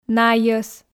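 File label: "найӧс", Komi, female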